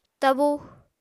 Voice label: "tabu", Apatani